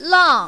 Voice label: "lang4", mandarin, female

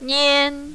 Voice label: "nian1", mandarin, female

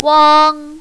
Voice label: "wang1", mandarin, female

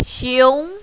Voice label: "xiong2", mandarin, female

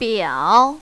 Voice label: "biao3", mandarin, female

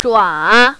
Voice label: "zhua3", mandarin, female

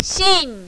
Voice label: "xin4", mandarin, female

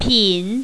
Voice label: "pin3", mandarin, female

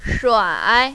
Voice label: "shuai3", mandarin, female

